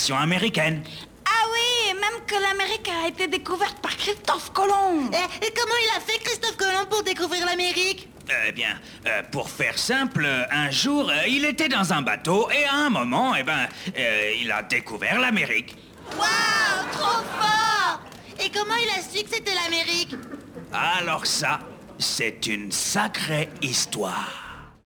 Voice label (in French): enfant; clifford